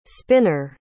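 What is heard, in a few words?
"spinor", English word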